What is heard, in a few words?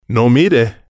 "no mire", spanish